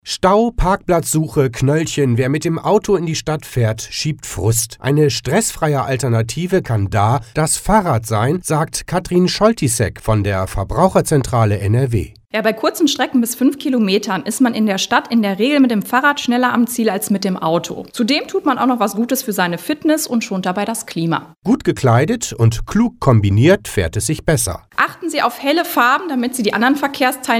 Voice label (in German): Infomercial Mobilitaet